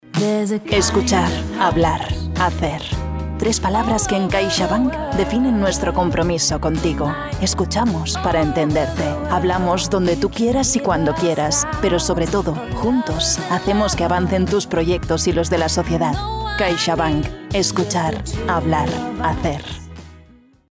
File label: catalan commercial spanish; caixabank